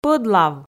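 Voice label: "пӧдлав" Komi, female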